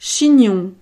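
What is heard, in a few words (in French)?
"chignon", French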